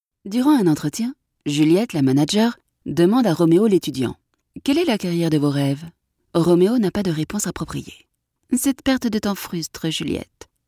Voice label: french commercial; locutora francesa